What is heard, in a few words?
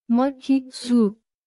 Nepali, basic phrases